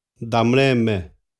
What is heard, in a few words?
"damreme", xavante